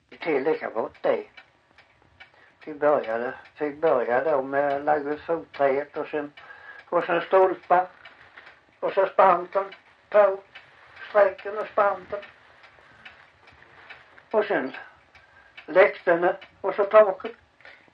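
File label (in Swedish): Backaryd Blekinge, Svenska sagor dialekter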